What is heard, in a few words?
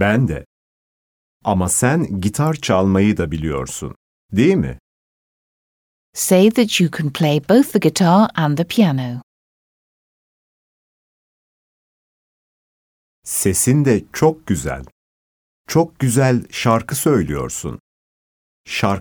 Speaking, Turkish